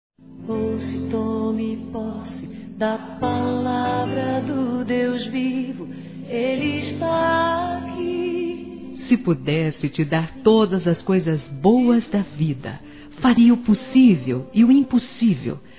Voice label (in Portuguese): Telemensagens, Aniversários, Fem; ANIV FILHA; Telemensagens 2017; ANIV. Evangelico